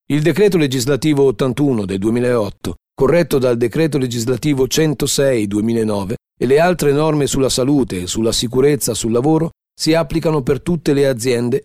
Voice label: e-learning